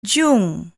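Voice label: "jung", Malay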